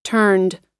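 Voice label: "turned", US English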